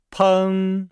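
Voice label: "peng", Chinese; Nihao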